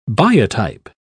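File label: "biotype", British English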